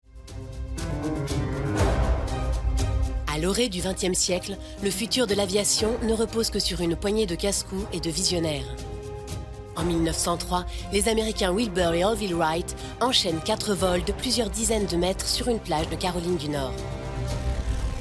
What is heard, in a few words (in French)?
DOCU NARRATION extraits; voix-off; La guerre des as; Arte